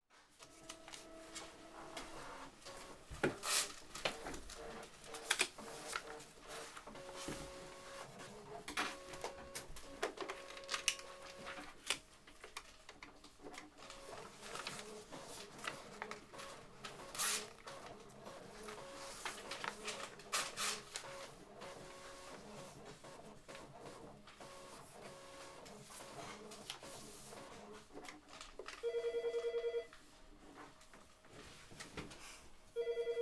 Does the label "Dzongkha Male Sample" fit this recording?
No